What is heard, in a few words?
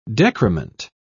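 "decrement", British English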